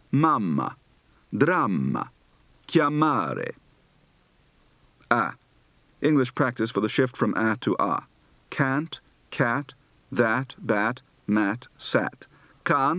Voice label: Italian, Accent